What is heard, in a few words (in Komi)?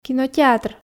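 "кинотеатр", Komi, female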